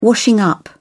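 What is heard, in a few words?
"washing up", British English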